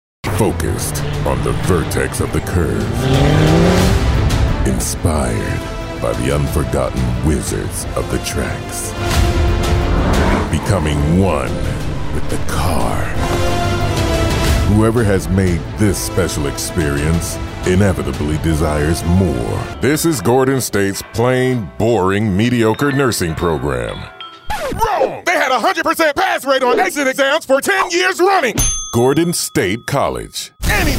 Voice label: Dramatic